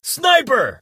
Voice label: sniper, Male; war; Kenney's Sound Pack; Voiceover Pack

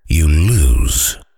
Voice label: "you lose", Voiceover Pack Fighter; Kenney's Sound Pack

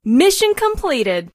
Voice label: "mission completed", female; Kenney's Sound Pack; Voiceover Pack

